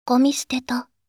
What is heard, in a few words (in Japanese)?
ごみ捨てた…, システムボイス; Windows等向けシステムボイス